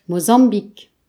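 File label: "mozambique", Breton; gcompris; geography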